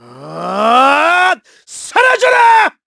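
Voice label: Vox Skill, Korean; KaselB; kingsraid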